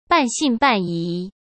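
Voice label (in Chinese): "半信半疑", Mandarin Chinese; hsk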